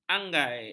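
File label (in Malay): "anggai", Malay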